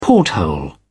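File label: "porthole", British English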